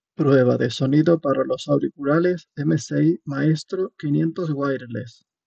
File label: MSI MAESTRO 500 Wireless Audio